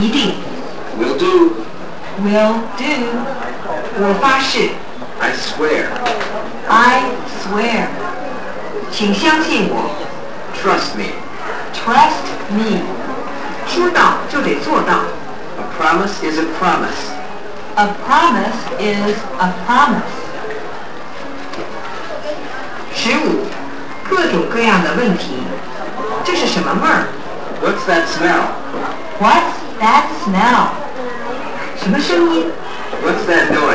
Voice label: english